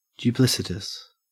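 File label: "duplicitous", Australian English; pronunciation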